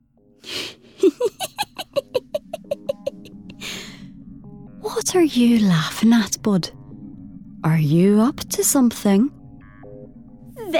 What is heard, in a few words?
Animation